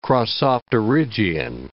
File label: "crossopterygian", English